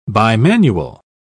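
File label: "bimanual", English, uk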